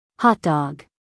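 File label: "hot dog", American English, female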